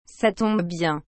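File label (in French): "Ça tombe bien", French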